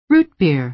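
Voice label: "root beer", American English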